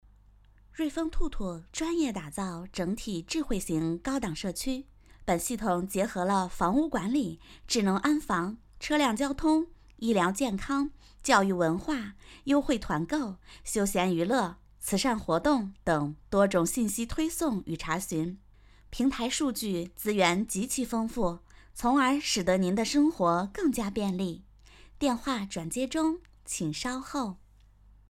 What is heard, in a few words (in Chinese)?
彩铃